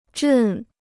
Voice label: "阵", Chinese

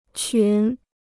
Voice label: "群", Chinese

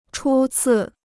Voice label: "初次", Chinese

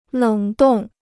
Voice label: "冷冻", Chinese

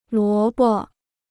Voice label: "萝卜", Chinese

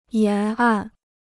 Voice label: "沿岸", Chinese

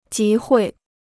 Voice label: "集会", Chinese

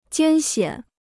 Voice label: "艰险", Chinese

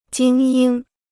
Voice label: "精英", Chinese

